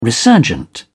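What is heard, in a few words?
"resurgent", English, British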